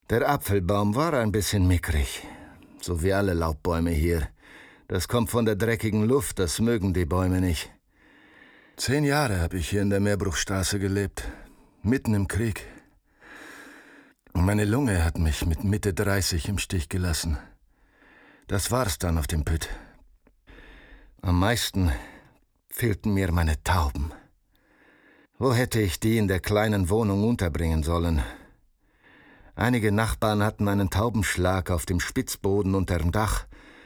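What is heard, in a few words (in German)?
Hörspiel polnischer Akzent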